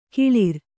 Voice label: "ಕೊಳ್ಳಾರ", Kannada